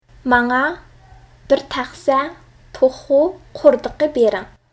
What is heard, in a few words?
"DaPanJi", Uyghur